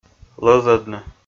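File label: "лӧзӧдны", Komi, male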